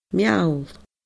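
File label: "meall", Gaelic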